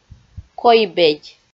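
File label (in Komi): "койбедь", Komi, female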